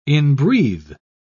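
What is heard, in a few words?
"inbreathe", British English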